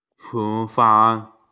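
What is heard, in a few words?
"kitchen", Mandarin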